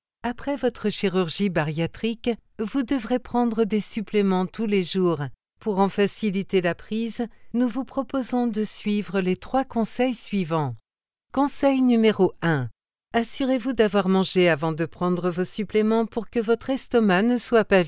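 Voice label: French eLearning; GoLocalise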